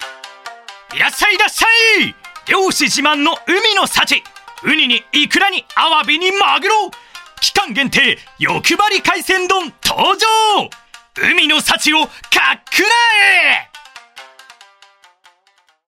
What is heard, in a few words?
Kaisendon CM; ボイスサンプル